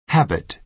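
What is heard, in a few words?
"habit", American English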